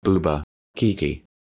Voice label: Synthetic Human Speech Boobah Keekee